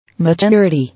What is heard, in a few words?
"Maturity", English